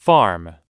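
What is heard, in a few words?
"farm", English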